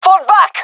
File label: request fall back, gendarmerie fem; vo; agents